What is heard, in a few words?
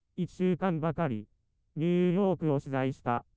synth